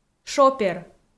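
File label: "шопер", Komi, female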